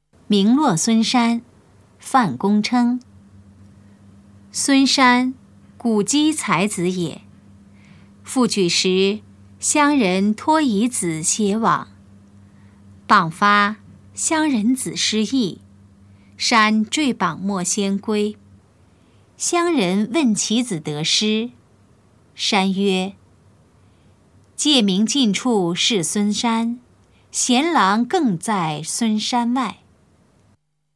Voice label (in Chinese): putonghua; 名落孫山; 普通話